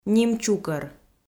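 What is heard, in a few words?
"нимчукӧр", Komi, female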